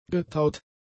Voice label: "getaut", german; adjectives

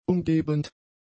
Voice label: "umgebend", German; adjectives

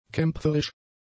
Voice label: "kämpferisch", German; adjectives

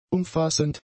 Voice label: "umfassend", German; adjectives

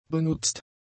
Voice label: "benutzt", German; adjectives

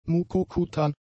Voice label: "mukokutan", German; adjectives

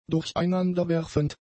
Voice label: "durcheinanderwerfend", German; adjectives

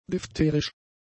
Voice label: "diphtherisch", german; adjectives

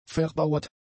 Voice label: "verbauert", German; adjectives

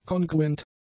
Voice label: "kongruent", German; adjectives

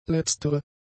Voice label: "letztere", German; adjectives

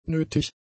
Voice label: "nötig", German; adjectives

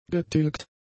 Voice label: "getilgt", german; adjectives

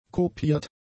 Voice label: "kopiert", German; adjectives

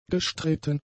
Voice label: "gestritten", german; adjectives

